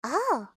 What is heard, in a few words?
Emote Positive; Juno